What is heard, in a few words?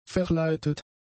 "verleitet", German; adjectives